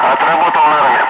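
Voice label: Russian speech, Ka-50 Wingman; Messages rockets away; DCS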